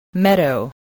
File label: "meadow", spelling voice